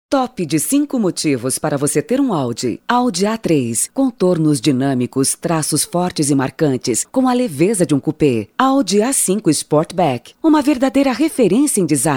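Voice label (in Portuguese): Feminino, Português - Brasileiro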